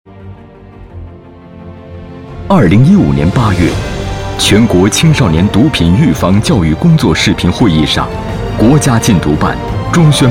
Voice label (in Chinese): 男, 专题, 严肃; 禁毒办